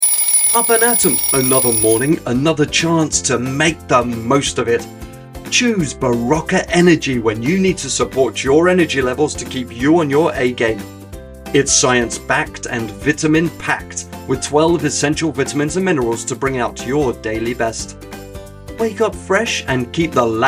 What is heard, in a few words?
Commercial Voice, male voice over artists australia